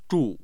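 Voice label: "zhu4", Mandarin Chinese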